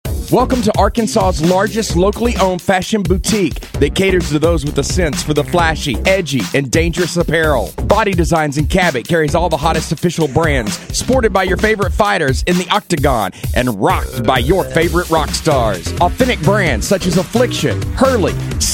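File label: radio commercial; Cabot Arkansas; KHTE Little Rock Arkansas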